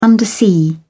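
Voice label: "undersea", English, British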